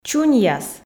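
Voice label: "чуньяс", Komi, female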